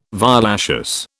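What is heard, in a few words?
"violaceous", British English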